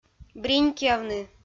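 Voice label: "бринькъявны", Komi-Zyrian, female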